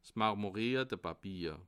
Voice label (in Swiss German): "mamrmorierte", alsace